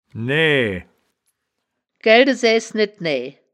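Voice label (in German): "naeae", wittlicher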